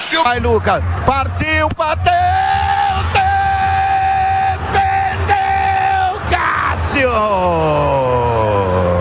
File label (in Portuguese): narradores rasgam a garganta nas defesas; corinthians campeao; defesas do cassio final